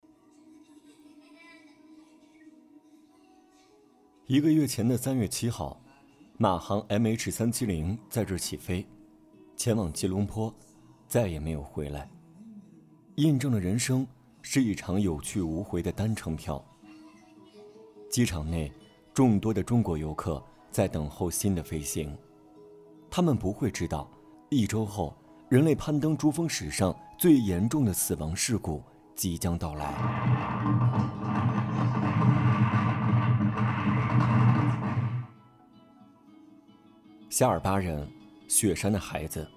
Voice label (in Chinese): 记录篇